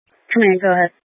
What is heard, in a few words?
Incident Tac, scanner